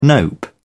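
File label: "nope", British English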